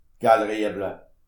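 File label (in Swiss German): "galeriewel", Alsatian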